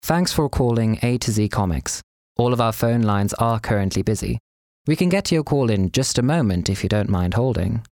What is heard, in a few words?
IVR